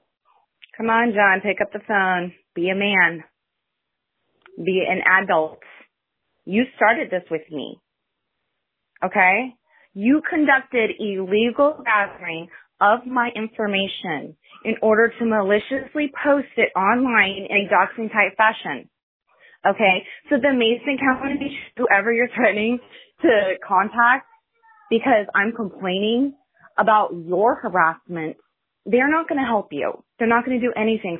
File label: Voicemail